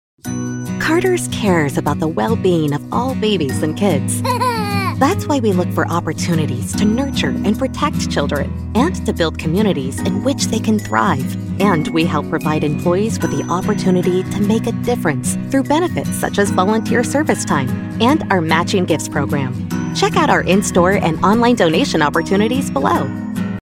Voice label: Warm and thoughtful, explainer